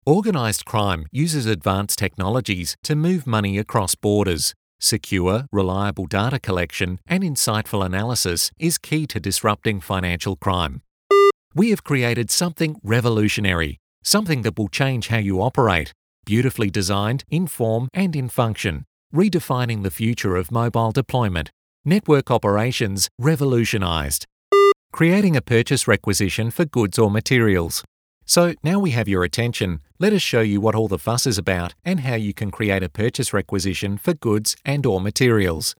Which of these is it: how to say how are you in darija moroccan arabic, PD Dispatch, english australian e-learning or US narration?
english australian e-learning